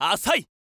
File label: wizard guard, voice